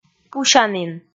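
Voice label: "пусянін", Komi, female; kpv audio dict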